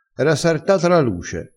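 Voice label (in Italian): "sarta", vocabolario dialettale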